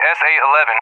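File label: "SA-11", English; USA; AirDefence; DCS; Allied Flight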